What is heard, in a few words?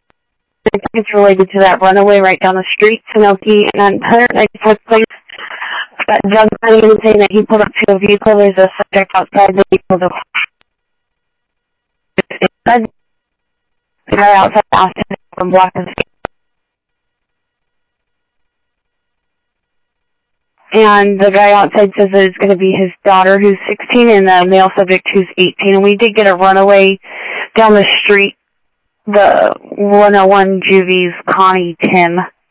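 Sheriff East County, scanner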